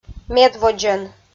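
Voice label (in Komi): "медводдзӧн", Komi, female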